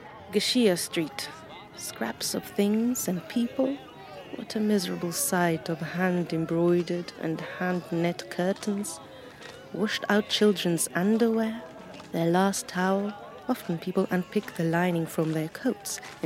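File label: eng narration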